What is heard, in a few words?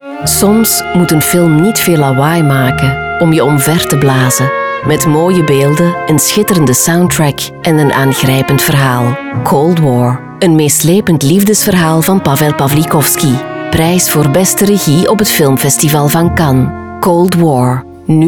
filmpromo radio, vo; cold war